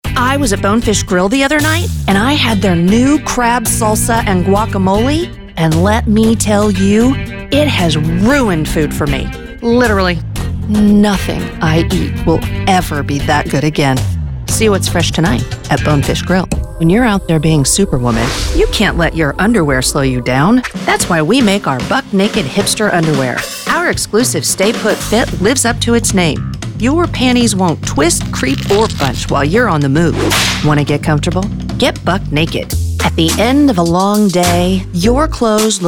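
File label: Commercials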